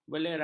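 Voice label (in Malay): "belerang", Malay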